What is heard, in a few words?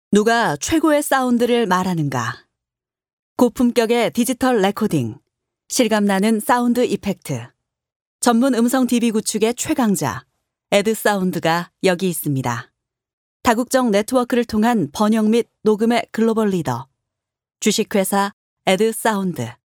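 Korean, female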